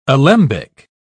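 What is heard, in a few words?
"alembic", British English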